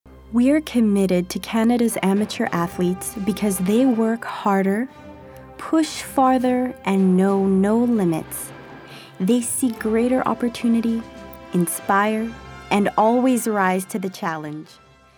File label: English; Province of Manitoba“Restart MB”